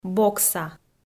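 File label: "бокса", Komi, female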